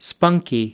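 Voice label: "spunky", American English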